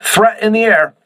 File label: voice message air alert, crew ships english